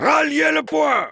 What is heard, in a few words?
heavy fight on cap, fr; Ralliez le point !; Réponses du Heavy